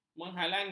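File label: "menghalangi", Malay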